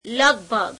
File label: gujarati about; લગભગ [leguebhegu]